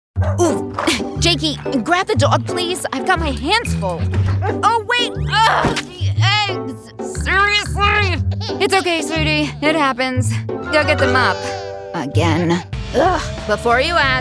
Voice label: Animation